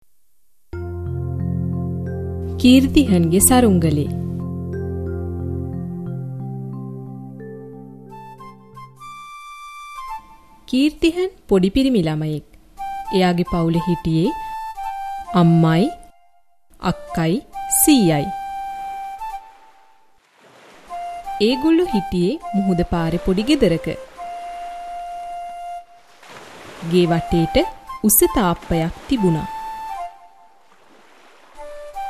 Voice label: Sinhala